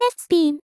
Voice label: "fspin", vocal; miku

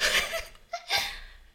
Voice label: happy; Techmino

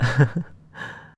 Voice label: happy

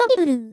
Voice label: "triple", vocal; miku

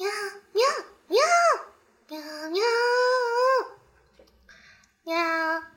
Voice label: happy, vocal

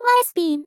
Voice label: "yspin", vocal; miku

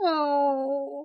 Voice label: sad

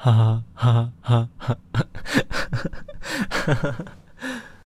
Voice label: happy; Techmino; flore

